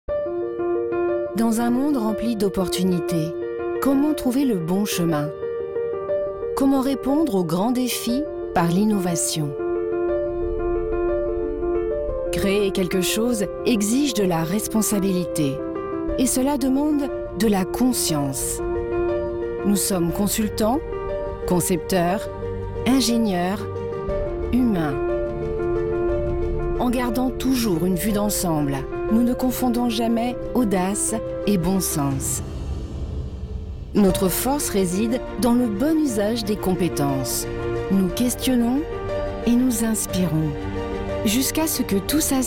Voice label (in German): Imagefilm Sommer; Drees